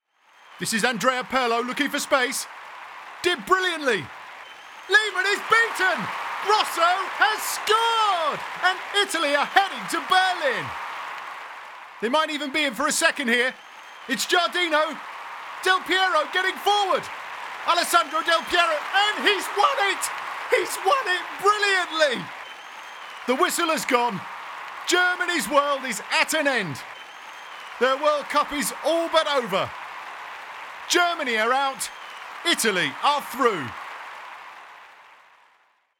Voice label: commentator Football commentary